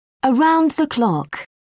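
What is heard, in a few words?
"around the clock", British English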